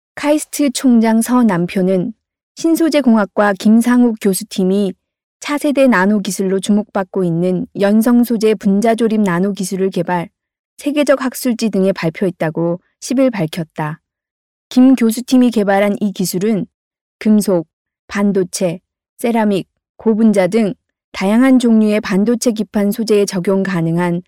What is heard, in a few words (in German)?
Nachvertonung Film Sprecherin Koreanisch